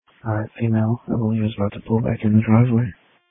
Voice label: Police Tactical Encrypted